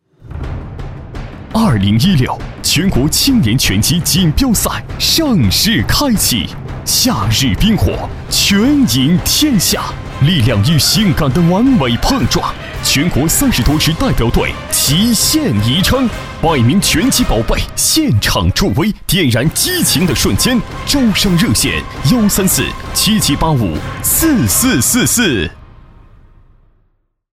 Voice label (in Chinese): 广告 片头片花 激情, 男国; 全国青年拳击赛